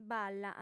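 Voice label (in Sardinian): "balla", female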